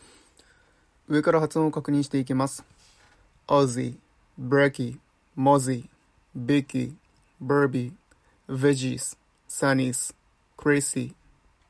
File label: Aussie English